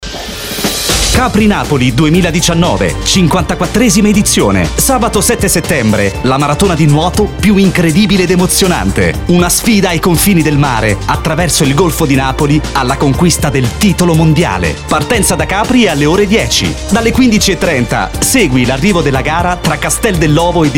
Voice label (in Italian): spot radio